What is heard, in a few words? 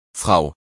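"frau", German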